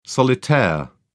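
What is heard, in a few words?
"solitaire", English, British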